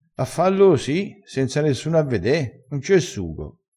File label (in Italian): "un c e sugo", dialettale